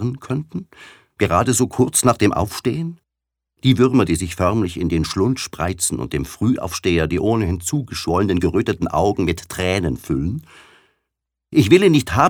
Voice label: Der Fruehe Vogel Witzig

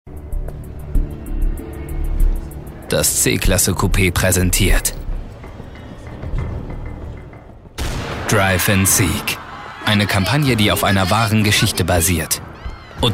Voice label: Kommentar Freundlich

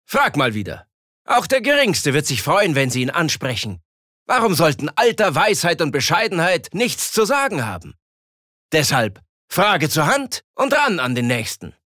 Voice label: Werbung Dynamisch

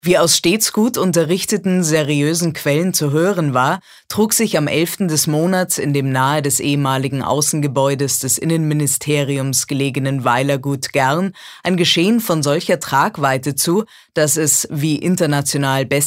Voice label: Trocken, Neutral

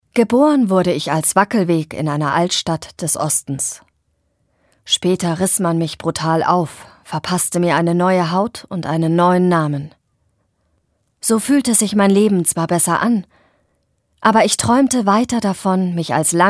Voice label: Schauspiel Neutral

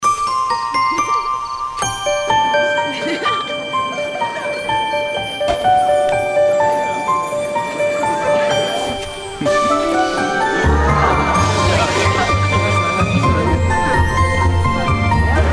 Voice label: Neutral; Fremdtake

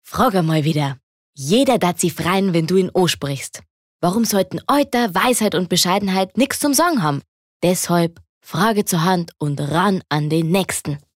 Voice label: Dialekt Bayerisch

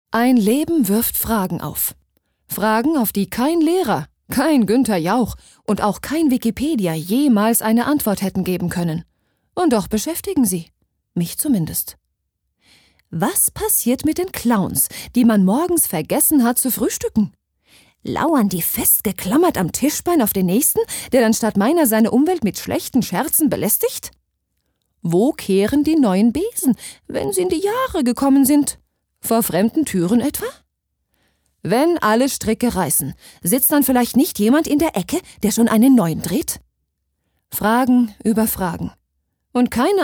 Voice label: Schauspiel, Neutral

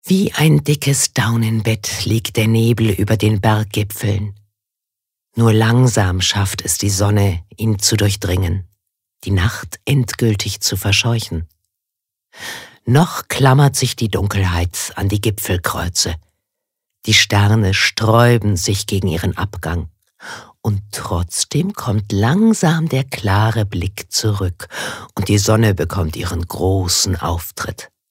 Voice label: Schauspiel Sanft

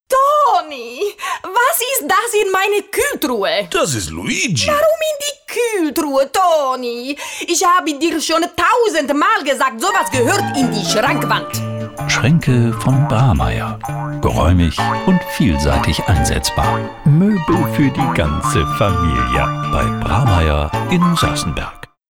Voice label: Akzent Italienisch

